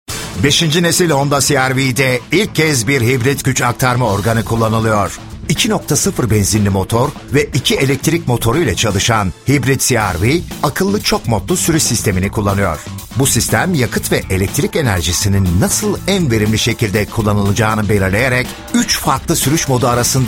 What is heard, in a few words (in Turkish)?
reklam